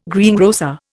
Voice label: "greengrocer", Indian